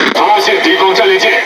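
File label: Speech Detection Enemy Battleship, Chinese; World Of Warships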